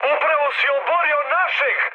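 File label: Serbian player killed ally mood high, speech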